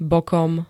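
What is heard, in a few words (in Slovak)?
"bokom", Slovak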